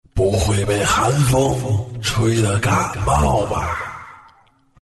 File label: zh; haste; Dota 2